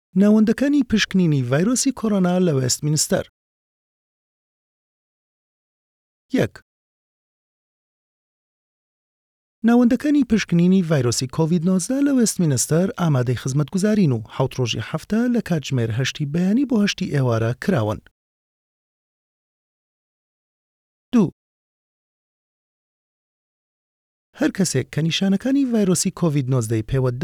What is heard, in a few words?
kurdish sorani translated coronavirus testing